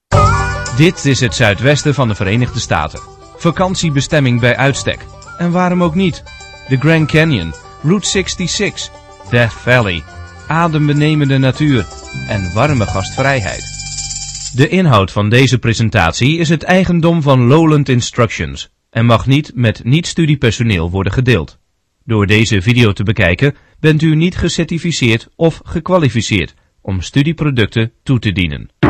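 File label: dutch male voice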